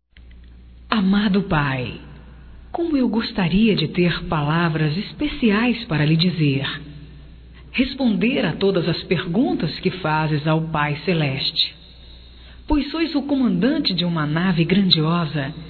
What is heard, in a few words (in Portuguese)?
PAI, Telemensagens, Aniversarios, fem; Telemensagens 2017